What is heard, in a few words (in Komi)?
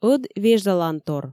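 ӧд вежлалантор, Komi, female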